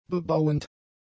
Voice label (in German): "bebauend", German; adjectives